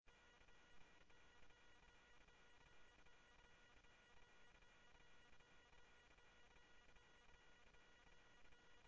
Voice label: Police, scanner audio trunk; H-Scottsdale